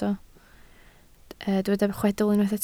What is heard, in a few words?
"chwedl", Welsh